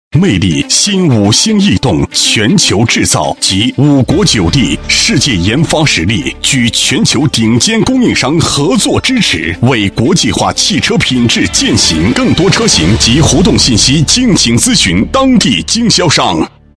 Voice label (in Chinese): 品牌广告, 国语男声配音, 男高音; 长安逸动